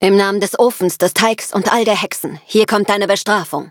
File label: loading, de; cookie run